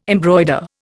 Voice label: "embroider", Indian